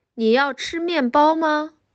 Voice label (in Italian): Cinese